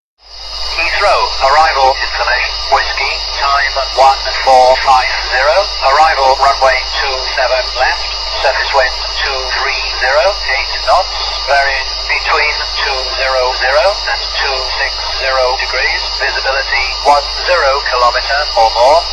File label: ATIS Heathrow